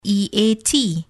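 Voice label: eat spell, English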